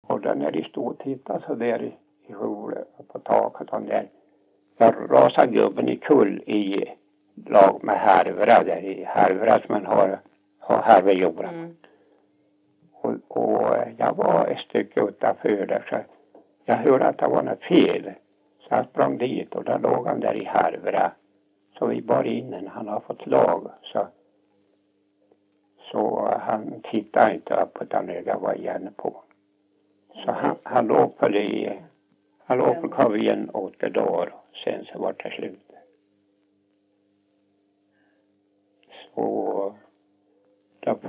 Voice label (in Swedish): dialekter; Folkminnen